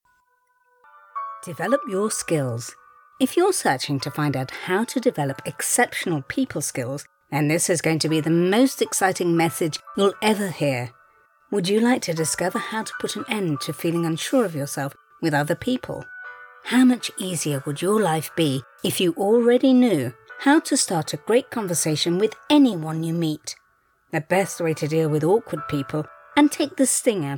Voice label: e-learning; SKILLS

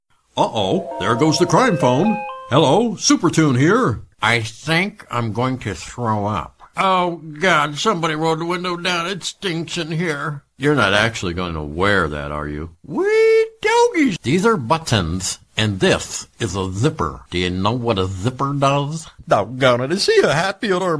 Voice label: Cartoon Voice Over

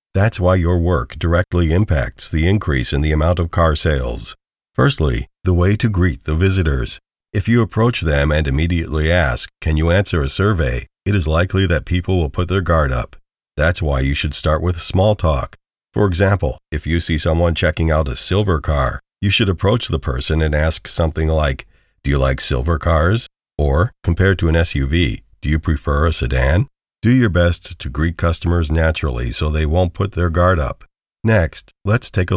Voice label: Recorded in home studio Training auto dealer crm

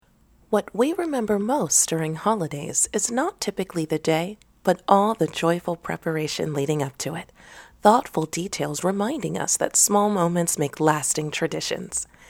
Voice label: Candies Ad

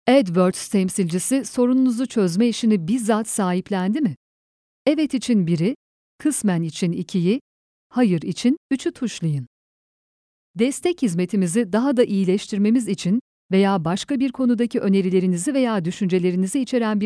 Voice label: Ivr

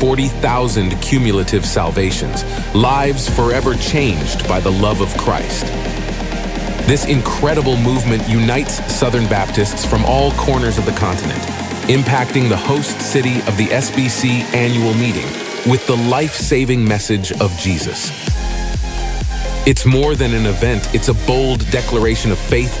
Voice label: Crossover Promo Video; Evergreen

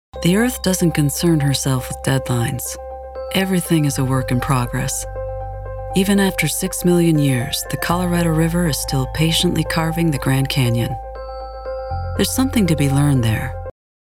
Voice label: Nature River Young

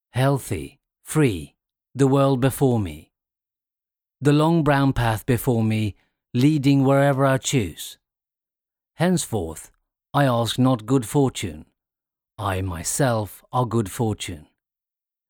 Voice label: Eng Swe

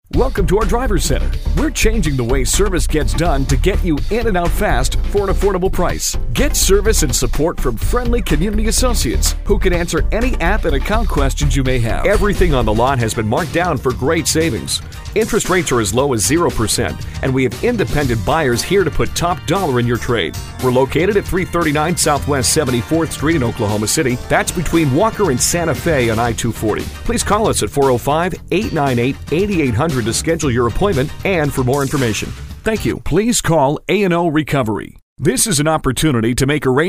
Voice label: In House On Hold

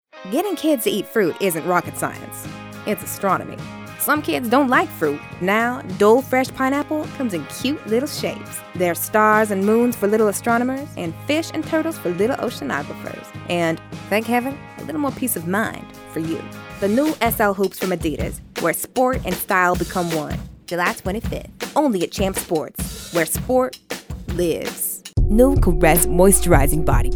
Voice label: Urban

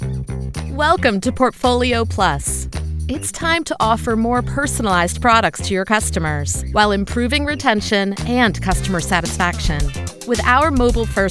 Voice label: Voice E Learning

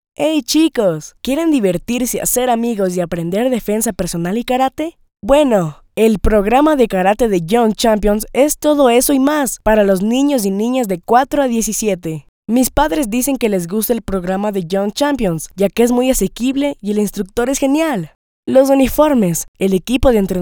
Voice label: Real Teen Voice

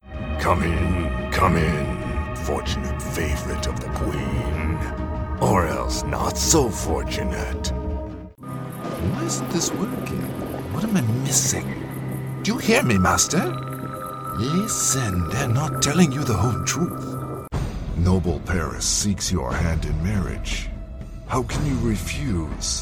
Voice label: Animation

